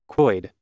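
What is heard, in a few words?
"kwoid", Proto-Indo-European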